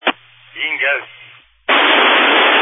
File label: Family Radio Service (FRS)